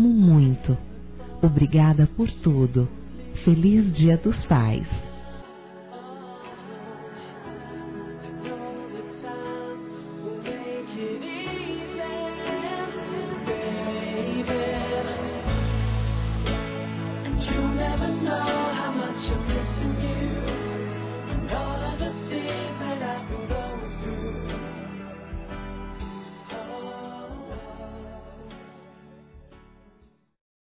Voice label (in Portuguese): AMO VOCÊ TODOS OS DIAS F; Telemensagens 2017